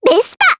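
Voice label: Vox Japanese; Annette; kingsraid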